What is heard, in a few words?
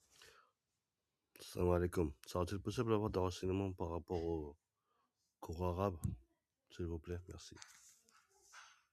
voice message